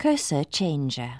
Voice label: cursor, british; Aristosoft, Inc.; icons

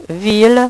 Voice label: "ville", French; HyperSound™2

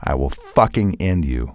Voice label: cuss, male; kingpin; profanity

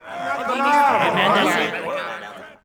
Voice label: men murm; Gamestar

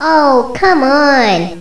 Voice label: ESP Aww

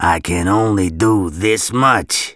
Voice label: Only This Much, Male; gangsters

